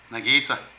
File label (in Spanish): Enfermo, Zapoteco de Petapa; Cuerpo; Vocabulary